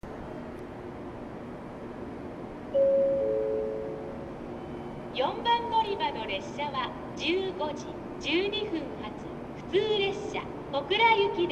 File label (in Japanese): 停車中案内放送; 行橋; 普通 小倉行き; 標準の放送内容でした。